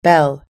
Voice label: "bell", us english